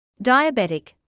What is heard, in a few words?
"diabetic", English